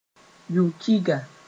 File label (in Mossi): "yub-kiga", moore